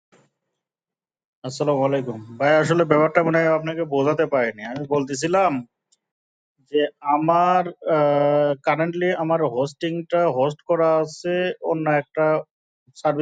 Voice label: voice message